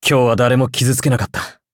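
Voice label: level up, Japanese; cookie run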